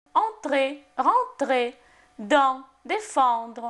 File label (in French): entrer — rentrer — dent — défendre, francais Phonetique Voyelles; Lingvo